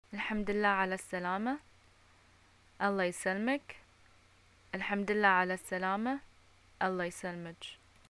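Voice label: Bahraini greeting get well soon, arabic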